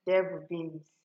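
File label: "devilbeaans", Nigerian Pidgin, female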